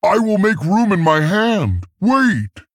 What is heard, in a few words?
Male Tauren ERROR HAND FULL